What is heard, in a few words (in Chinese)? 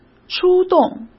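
"出动", Mandarin Chinese; hsk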